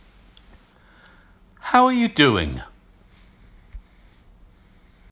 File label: how are you doing UK